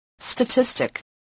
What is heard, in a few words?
"statistic", voice